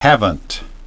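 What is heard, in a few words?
"haven't", English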